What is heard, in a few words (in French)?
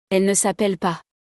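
"elle ne s appelle pas", French; conjugaison; le verbe s'appeler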